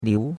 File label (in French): "liu2", chinois; Cours-de-Chinois